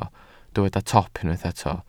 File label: "top", Welsh